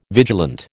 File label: "vigilant", American